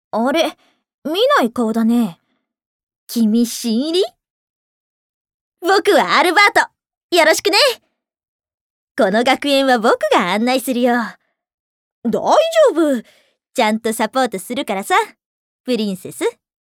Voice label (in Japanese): ボーイッシュ女の子